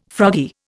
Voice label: "froggy", Indian